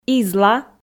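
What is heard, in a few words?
"изла", Komi, female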